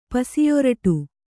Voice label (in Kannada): "ಪಸಿಯೊರಟು", Kannada